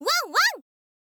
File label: "wanwan", girl